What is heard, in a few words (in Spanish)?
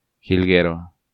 "Jilguero", Spanish